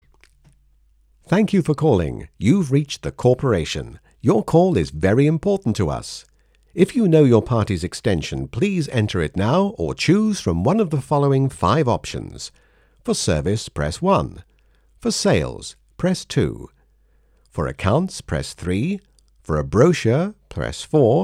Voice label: ON HOLD